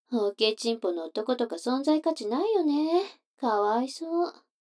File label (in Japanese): 女の子 台詞